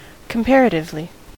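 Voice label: "comparatively", US English; pronunciations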